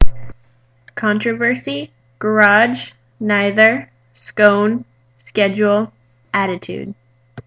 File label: saugerties new york female